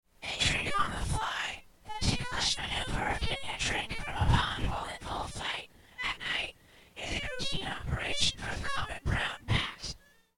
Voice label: rt lpc whisper